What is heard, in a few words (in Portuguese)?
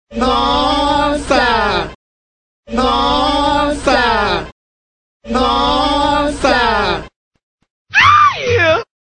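"nooossa", Portuguese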